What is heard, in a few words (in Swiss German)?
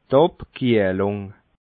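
"abkiehlung", Alsatian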